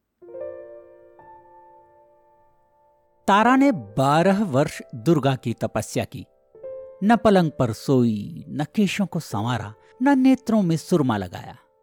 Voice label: audiobook